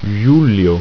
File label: "julio", ido